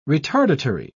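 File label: "retardatory", English, British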